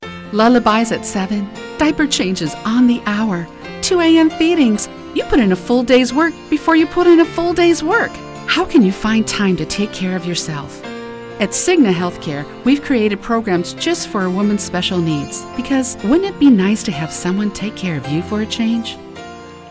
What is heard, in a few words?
commercial